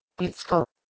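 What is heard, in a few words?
"h2isko", Proto-Indo-European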